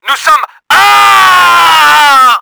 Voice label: BUILDING DIES, french; Battle Engine Aquila; XBOX